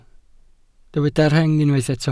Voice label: "rheng", welsh